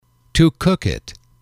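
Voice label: "to cook it", English, male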